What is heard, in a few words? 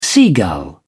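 "seagull", English, British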